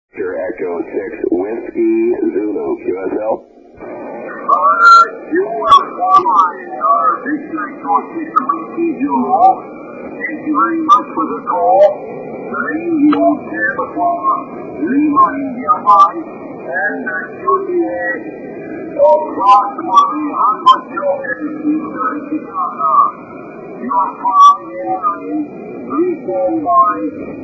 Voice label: 80m ssb, from Indonesia just thundering in on 80m; recorded at VE6WZ directly from the FT-1000d